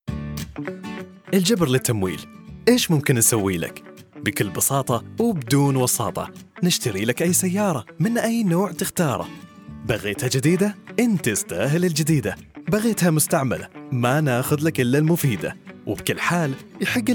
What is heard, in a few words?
arabic automotive